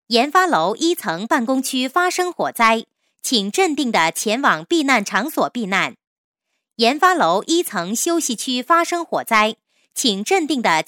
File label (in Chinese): 女53 广播 火灾提示, 国语女声配音; 省台女配